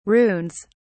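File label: "runes", British English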